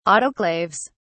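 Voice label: "autoclaves", British English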